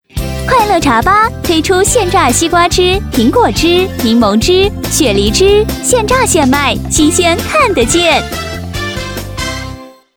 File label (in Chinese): 茶吧广告